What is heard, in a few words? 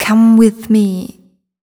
fem spo, Vocals